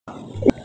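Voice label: "le4", Mandarin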